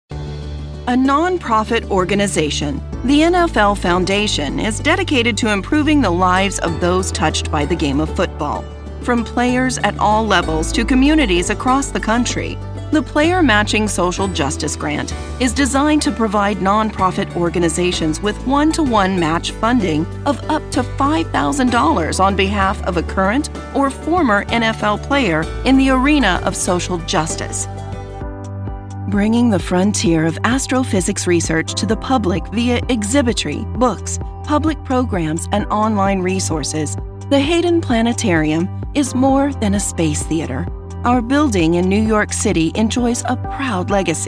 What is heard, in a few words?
Corporate